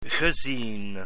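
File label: "gezien", Dutch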